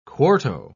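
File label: "quarto", British English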